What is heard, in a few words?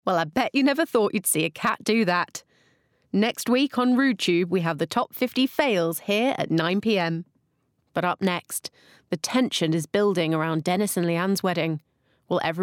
Continuity